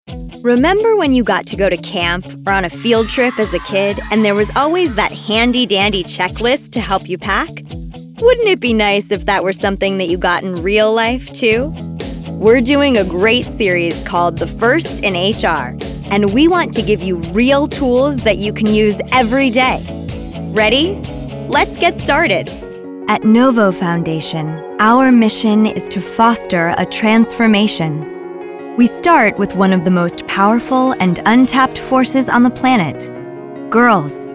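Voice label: Narration, voice over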